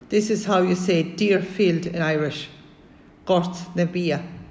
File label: Deerfield, M; gortnavea